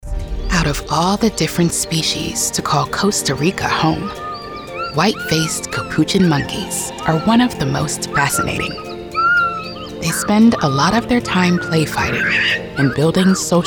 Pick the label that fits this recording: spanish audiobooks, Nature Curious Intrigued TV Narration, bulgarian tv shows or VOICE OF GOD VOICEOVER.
Nature Curious Intrigued TV Narration